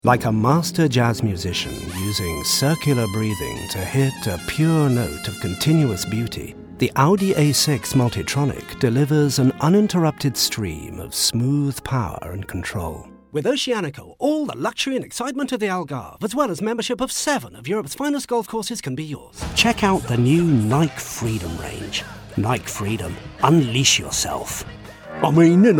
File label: commercials